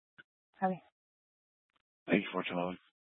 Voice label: Police Department Dispatch, scanner